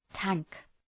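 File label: "tank", English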